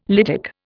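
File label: "lytic", Indian English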